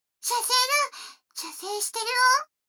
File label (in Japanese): 女の子 台詞